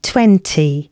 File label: announcements, female